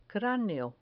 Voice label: "craneo", Spanish